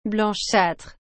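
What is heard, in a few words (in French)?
"blanchâtre", French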